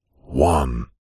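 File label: multimedia radio production male voice says one processed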